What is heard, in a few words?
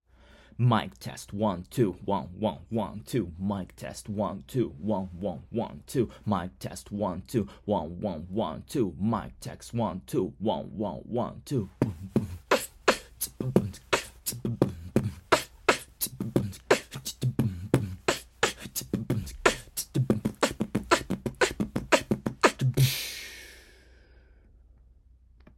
Mic Test MBP 2021